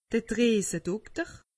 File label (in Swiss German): "driesedokter", alsace; medecine